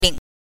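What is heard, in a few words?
"ling", Mandarin Chinese pinyin, neutral tone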